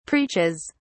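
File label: "preaches", British English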